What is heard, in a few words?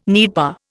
"nipa", Indian